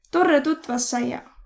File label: meet, voro